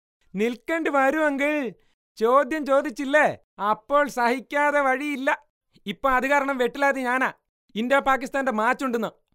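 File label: Male Malayalam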